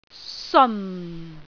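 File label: "seon4", Cantonese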